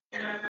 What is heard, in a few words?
voice message